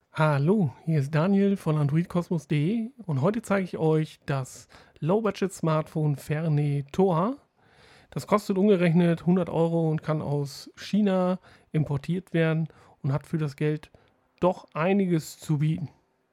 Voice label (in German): Mikrocheck